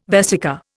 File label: "vesica", Indian